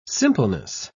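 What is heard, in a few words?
"simpleness", British English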